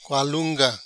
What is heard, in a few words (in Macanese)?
Qualunga h, Macanese